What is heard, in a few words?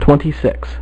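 "twenty-six", male; MacCribbage; InterStellar MacCribbage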